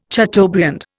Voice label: "chateaubriand", Indian